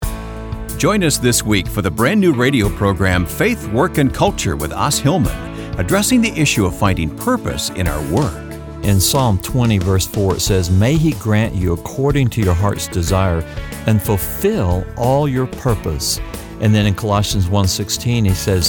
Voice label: Spot